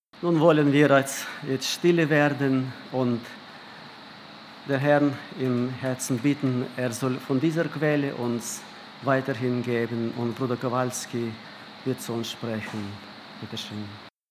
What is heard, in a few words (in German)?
Ansage; Die Ernte der Erde ist duerr geworden